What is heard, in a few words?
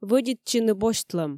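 "вӧдитчыны босьтлӧм", Komi, female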